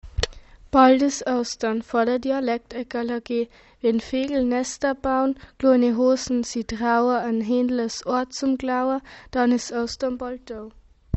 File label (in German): Es naht die Osterzeit Dialekt